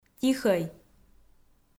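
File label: "тихӧй", Komi, female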